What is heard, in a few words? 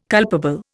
"culpable", Indian